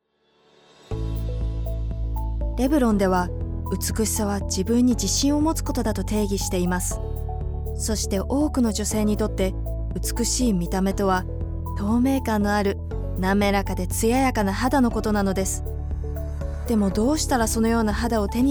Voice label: female japanese corporate; revlon